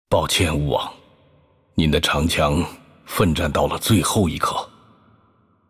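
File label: voice over, Chinese; Xin Zhao; game outro; bloom minigame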